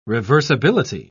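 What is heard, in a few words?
"reversibility", British English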